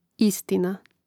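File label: "istina", Croatian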